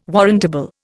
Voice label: "warrantable", Indian